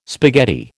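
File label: "spaghetti", American English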